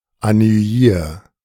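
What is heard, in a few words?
"ennuyier", German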